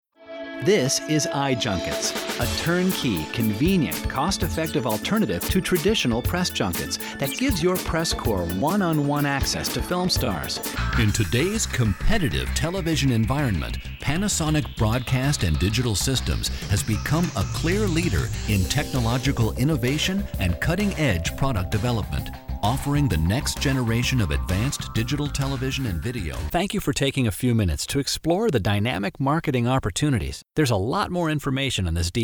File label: Male, promos